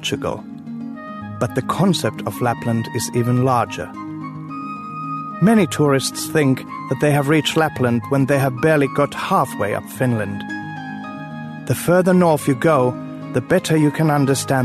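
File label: Finnish, male, English Sample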